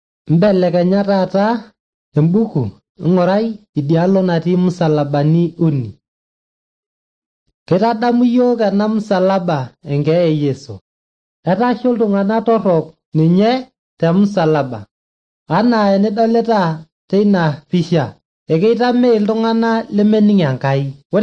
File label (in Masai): maasai bsv nt drama; good news; bible